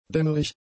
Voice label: "dämmerig", German; adjectives